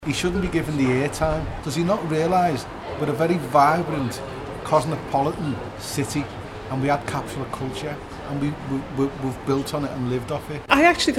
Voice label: vox pop; clarkson